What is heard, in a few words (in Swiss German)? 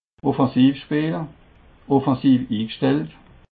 "offensivspeele", alsace